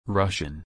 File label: Russian